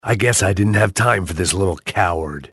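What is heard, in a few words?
"spy", male; tf; max payne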